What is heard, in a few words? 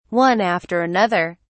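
"one after another", English, British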